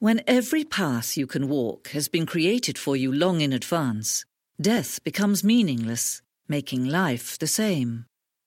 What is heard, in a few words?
FemNarr